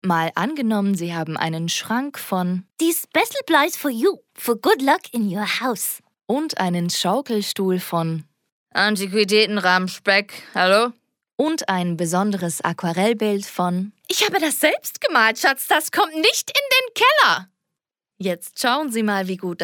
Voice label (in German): Werbung DE